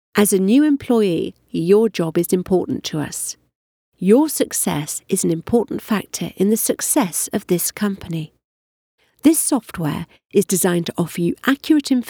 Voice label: Voice Over M Corporate

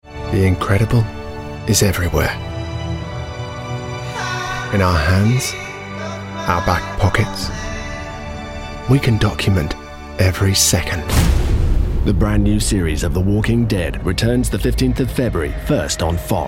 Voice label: TV Spots

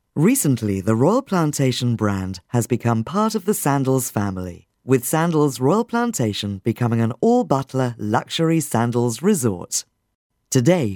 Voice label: Studio Sound evaluation

